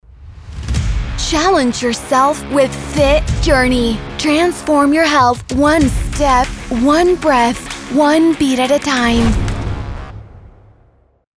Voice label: ENG ENG Motivational Powerful